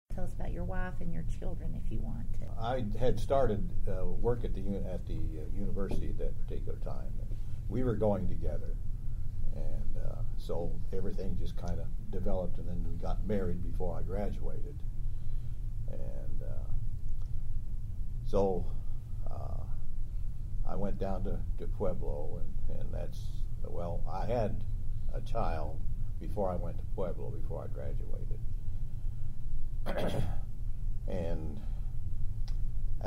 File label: Interview